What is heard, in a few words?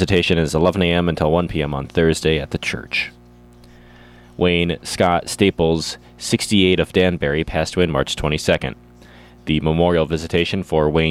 Funeral Announcements